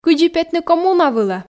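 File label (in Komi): кыдзи петны коммуна вылӧ, Komi, female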